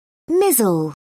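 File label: "mizzle", British English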